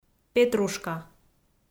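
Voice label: "петрушка", Komi, female; audio dict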